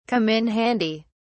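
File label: "come in handy", British English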